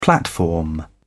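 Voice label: "platform", British English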